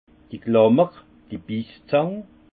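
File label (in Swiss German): "klammer", Haut Rhin; vin; pince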